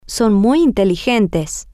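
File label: Spanish, they Pablo and Juan are very intelligent, female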